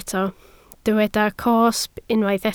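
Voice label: "cosb" Welsh, spoken word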